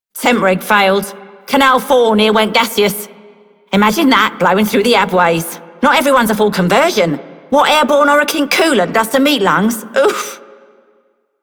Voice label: Female Worker, Female One, en; Dialog; Warframe; Solaris Venus